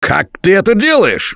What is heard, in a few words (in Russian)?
Nice Shot, left4dead2 russian, Survivor Voice